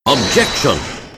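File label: "objection", male; layton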